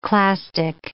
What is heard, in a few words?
"clastic", English